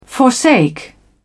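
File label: "forsake", English, British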